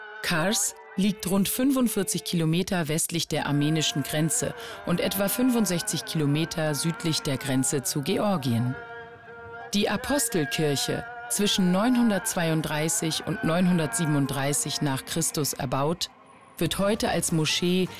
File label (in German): 3sat Doku; Almanya in Anatolia